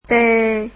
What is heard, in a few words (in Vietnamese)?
"t", Vietnamese alphabet